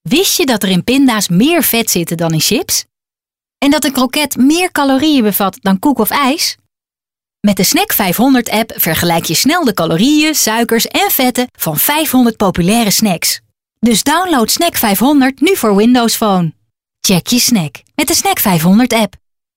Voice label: radio commercial; Snack 500